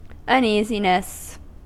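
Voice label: "uneasiness", US English; pronunciations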